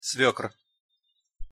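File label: "svekr", Russian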